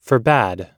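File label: "forbad", US English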